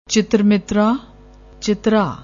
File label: "chitarmitra", Punjabi; ਚਿਤਰਾ